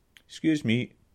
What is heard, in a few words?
excuse me scots